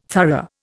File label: "thorough", Indian